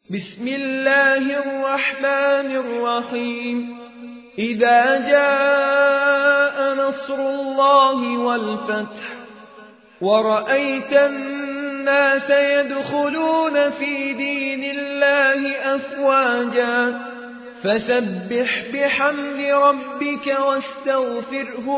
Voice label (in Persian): ترتیل; مصطفی رعد العزاوی; سوره نصر